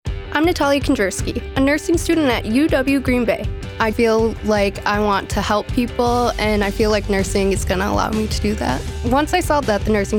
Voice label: radio; UWGreenBay Nursing